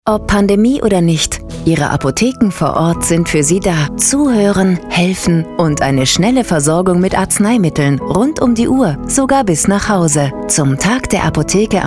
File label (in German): Radiospot; zum Tag der Apotheke